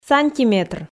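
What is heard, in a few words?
"сантиметр", Komi, female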